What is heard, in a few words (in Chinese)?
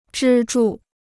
"支柱", Chinese